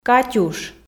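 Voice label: "катюш", Komi, female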